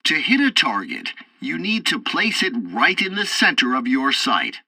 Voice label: tutorial assault bombing, english; War Thunder